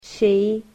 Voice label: "she", English